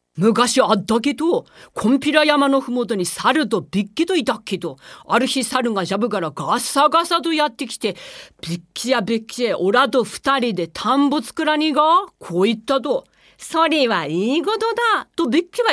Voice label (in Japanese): 方言で昔話, 山形・米沢弁